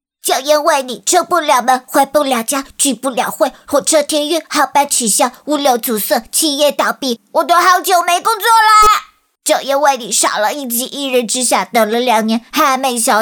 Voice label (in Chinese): 女 童声 新冠病毒快滚吧